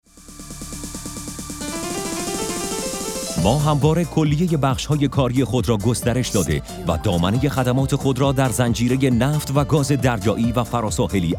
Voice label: narration